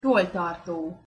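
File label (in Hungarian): "tolltartó", Hungarian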